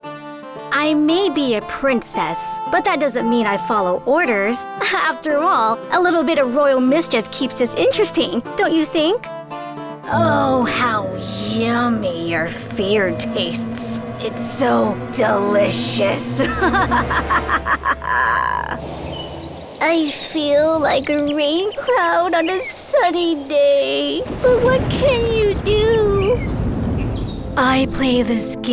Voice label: Animation

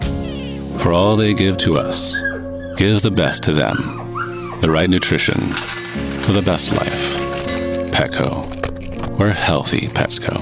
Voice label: Spot; Petco

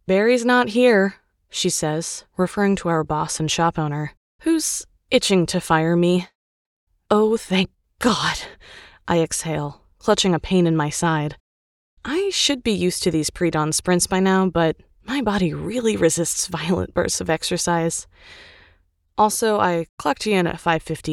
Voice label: YA fiction dialogue

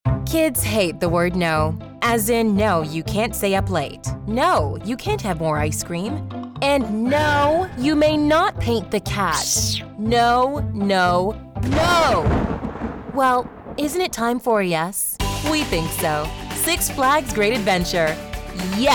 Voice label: Commercial Demo

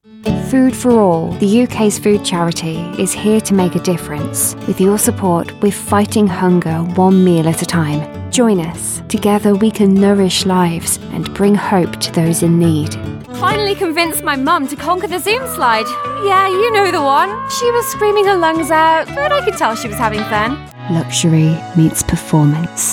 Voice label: graceful voice commercial, female british

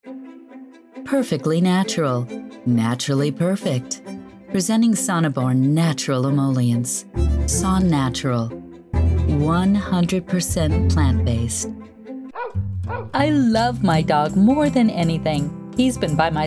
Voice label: Commercial

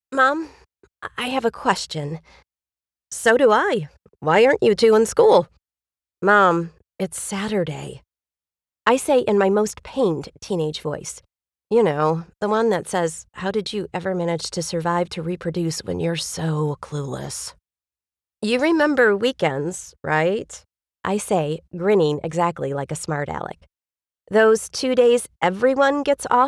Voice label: YA Humor; Coyote

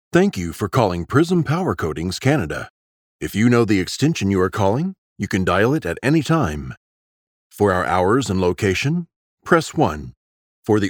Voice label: telephony

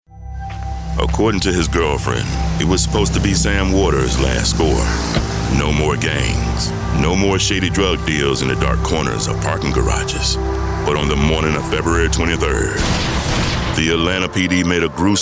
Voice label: TV Narration

